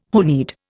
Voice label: "honied", Indian

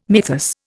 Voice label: "mythus", Indian

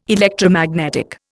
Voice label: "Electromagnetic", Indian

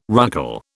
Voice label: "ruckle", British

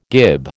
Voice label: "gib", American

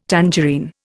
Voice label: "tangerine", Indian

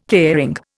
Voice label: "caring", Indian

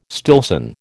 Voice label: "Stillson", American

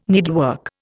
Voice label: "needlework", Indian

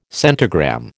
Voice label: "centigram", American

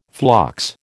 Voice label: "phlox", American

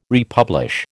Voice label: "republish", American

